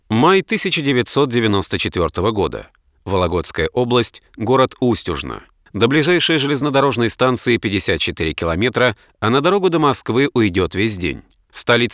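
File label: tv programma drama, Russian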